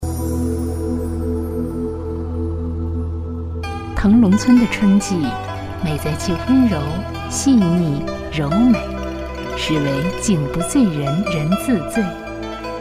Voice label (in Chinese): 女 宣传片 旅游 亲切; 腾龙村